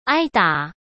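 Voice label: "挨打", Mandarin Chinese; hsk; chinese-flashcards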